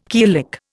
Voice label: "killick", Indian